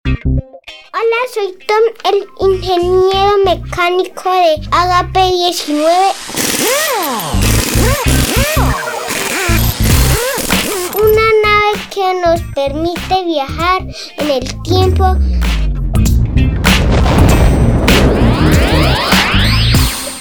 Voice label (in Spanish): Cuña, serie radial